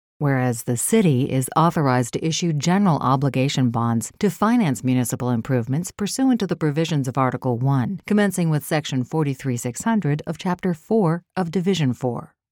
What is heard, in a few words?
Municipal Gov Serious